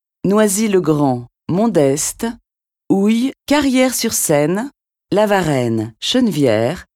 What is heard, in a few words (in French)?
voix rer